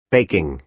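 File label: "baking", American English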